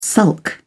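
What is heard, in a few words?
"sulk", British English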